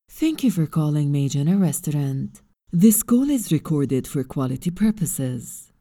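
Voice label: Arabic, male, English IVR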